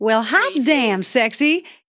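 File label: Female Says Hot Damn Sexy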